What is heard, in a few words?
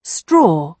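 "straw", British English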